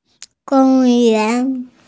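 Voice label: "gong yuan" Chinese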